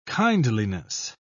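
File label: "kindliness", British English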